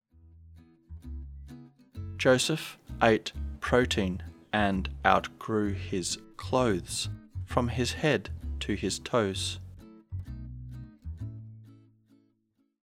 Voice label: slow, diphthong əʊ as in home